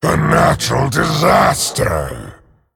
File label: Male Dragon Oops